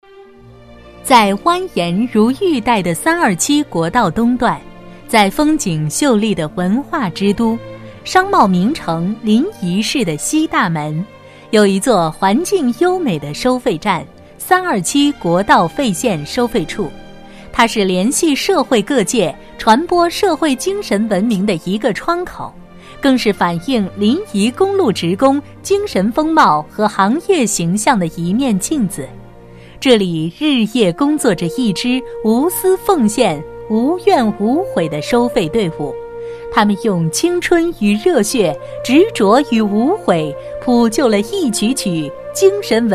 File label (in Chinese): 女 女播; 临沂公路